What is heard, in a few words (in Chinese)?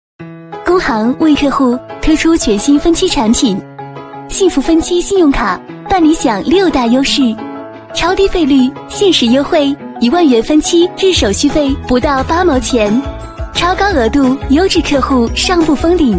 女 飞碟MG类型 工行幸福分期